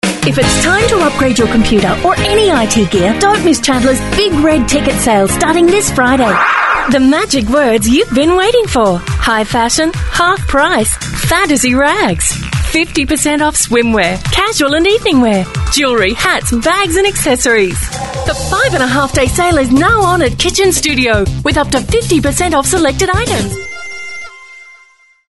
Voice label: female talent retail